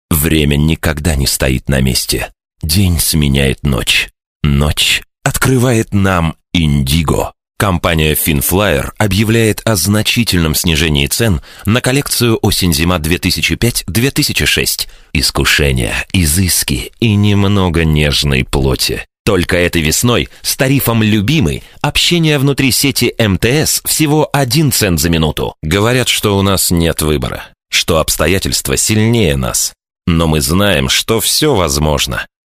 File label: rus